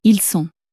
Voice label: "ils sont", French